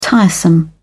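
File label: "tiresome", British English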